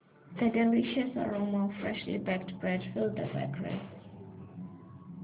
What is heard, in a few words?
sad